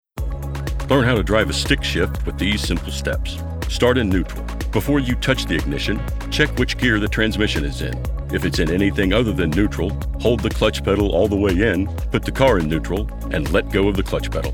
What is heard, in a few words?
ELearning Technical